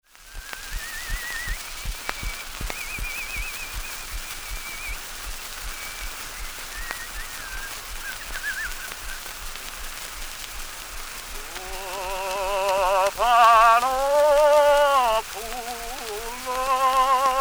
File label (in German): Griechisch